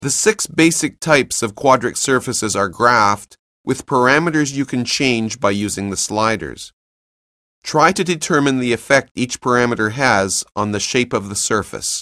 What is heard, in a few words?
narration; CALCULUS 7E; cengage